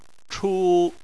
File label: "chu1", chinese